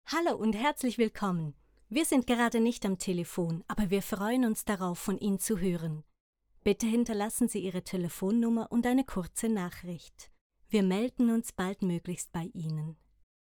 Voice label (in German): sprecherin Telefonansage Deutsch